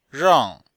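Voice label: "rang4", Chinese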